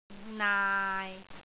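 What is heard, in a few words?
"naai6", Cantonese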